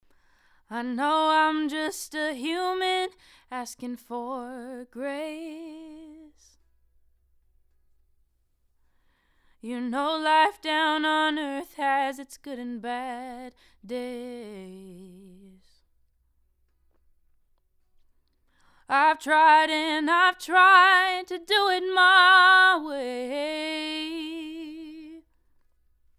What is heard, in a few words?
Solo Slate C12, virtual mic; virtual mic shootout